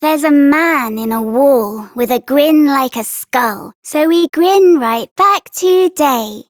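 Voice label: Child Rhymes Happy Child Female; Duviri; Childrens Rhymes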